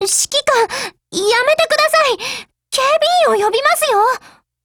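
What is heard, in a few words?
dialogue, Japanese; 81typeR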